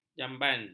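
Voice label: "jamban", Malay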